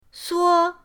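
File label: "suo", Mandarin pinyin, first tone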